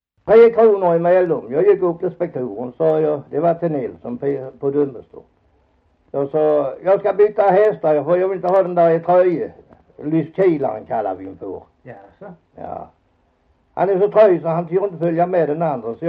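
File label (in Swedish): Hasslöv Halland, dialekter svenska sagor; Folkminnen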